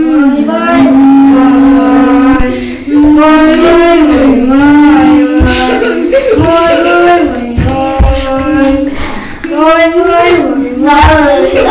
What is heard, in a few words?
bye bye miaw